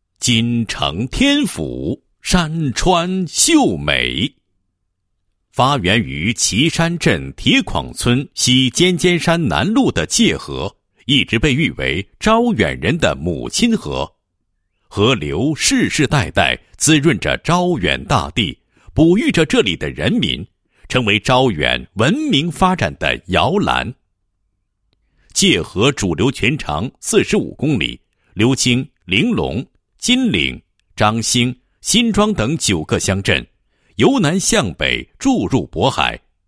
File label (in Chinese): 男 项目 流域综合治理项目; 招远市界河流域综合治理项目